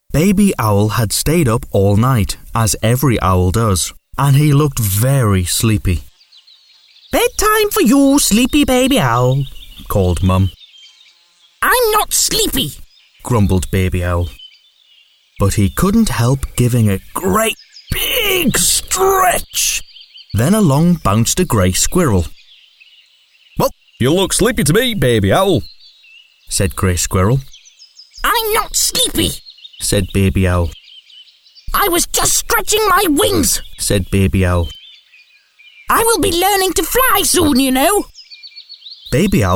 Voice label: uk audiobook

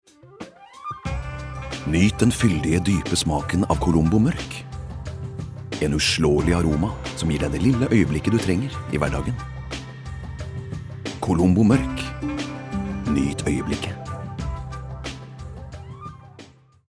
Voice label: no soft sell

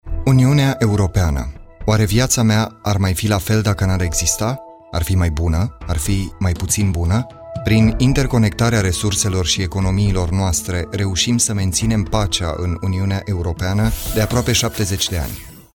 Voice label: ro corporate

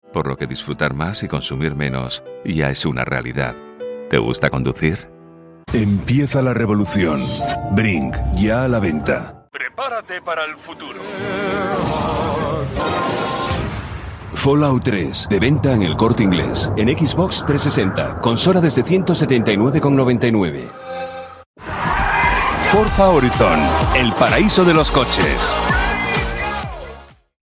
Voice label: es commercial, spansk europa mann

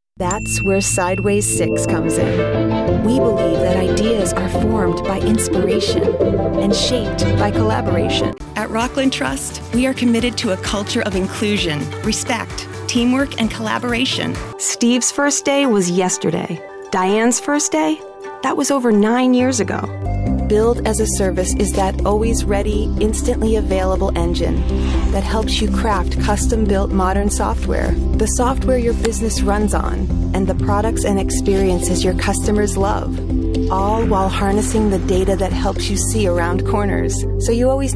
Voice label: us corporate narration; engelsk-us

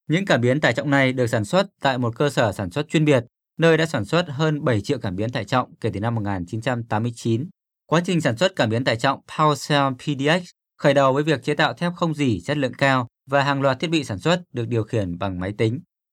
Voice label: vn informative

